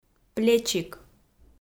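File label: "плечик", Komi, female; audio dict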